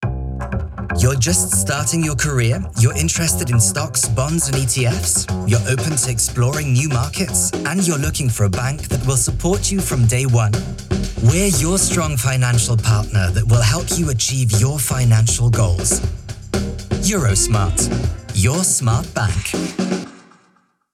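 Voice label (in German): Bank EN, Werbung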